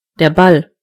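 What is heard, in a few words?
ball, German voices words; gcompris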